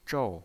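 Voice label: "zhou" Mandarin Chinese pinyin voice, fourth tone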